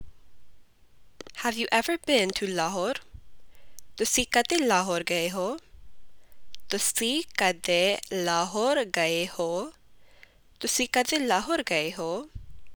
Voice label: Punjabi Have you ever been to Lahore